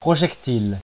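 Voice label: "projectile", French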